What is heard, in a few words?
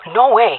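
disagree, female; vo; agents; jungle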